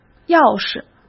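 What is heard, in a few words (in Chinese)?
"钥匙", Mandarin Chinese; hsk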